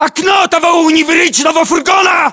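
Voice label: landmark near van destroyed, battlechatter, Russian; localized english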